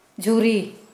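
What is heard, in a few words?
"firewood", Kera Mundari, female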